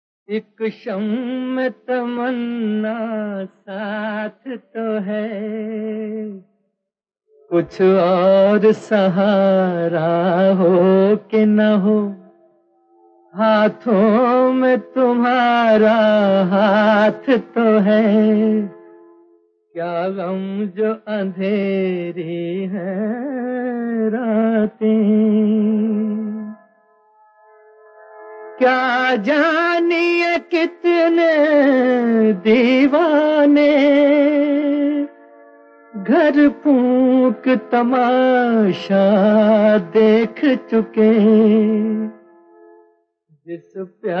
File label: Poem